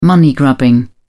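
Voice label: "money grubbing", English, British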